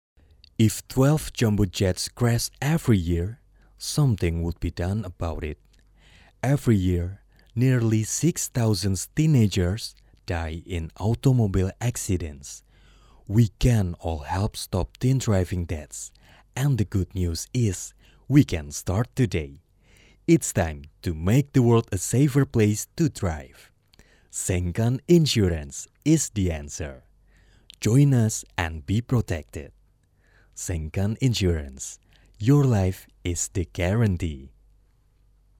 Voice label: FORMAL ENGLISH